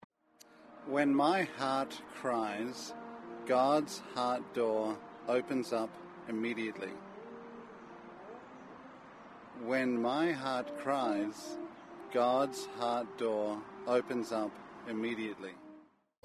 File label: poem